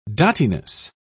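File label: "dottiness", British English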